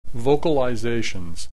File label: vocalizations